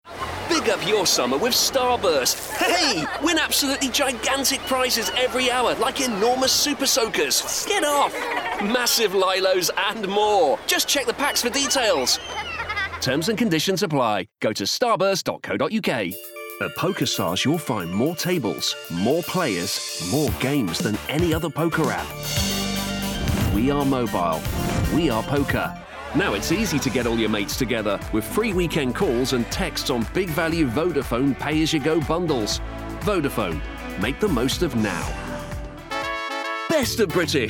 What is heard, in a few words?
Commercials Montage